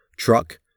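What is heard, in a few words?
"truck", English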